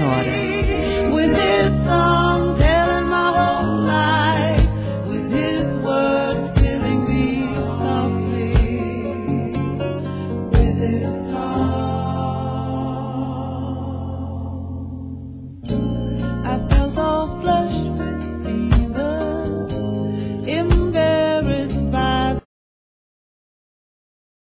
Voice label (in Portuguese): Homenagem Para Sogra Feminino